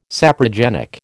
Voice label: "saprogenic", American